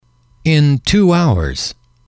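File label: "in two hours", English, male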